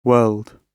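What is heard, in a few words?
"whirled", British English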